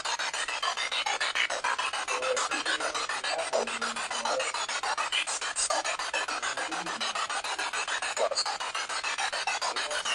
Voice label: Basement Barbershop Spirit Box

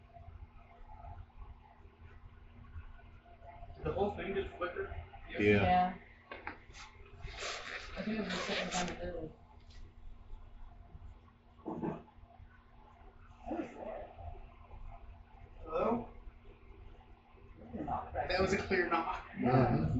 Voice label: Laundry EVP

